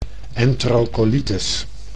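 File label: "enterocolitis", Dutch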